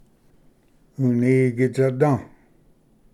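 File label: "unigitsada", Cherokee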